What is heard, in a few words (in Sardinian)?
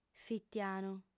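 "fitianu", female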